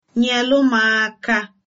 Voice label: help, igbo

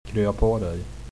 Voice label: get well soon, swedish

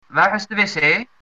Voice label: toilet, dutch

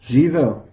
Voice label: cheers, serbian

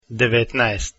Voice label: 9 Croatian

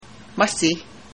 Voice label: thanks, slavey

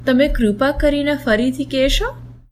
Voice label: say again, gujarati

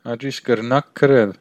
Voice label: write, armenian western

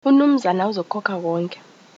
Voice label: pay zulu

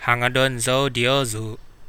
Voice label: i miss you, kadazan

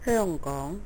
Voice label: hongkong, cantonese

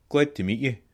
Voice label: "meet", scots

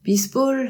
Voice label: goodbye, alsatian

